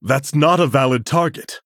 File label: Male Human ERROR TARGET